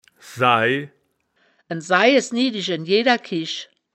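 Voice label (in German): "saih", wittlicher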